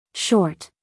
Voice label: "short", US female